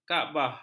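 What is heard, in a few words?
"kaabah", Malay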